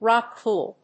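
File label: "rock pool", English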